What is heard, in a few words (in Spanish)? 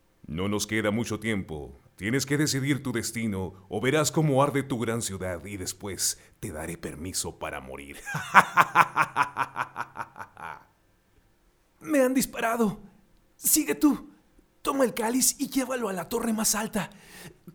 LOC CUENTOS Y AUDIOLIBROS PERSONAJES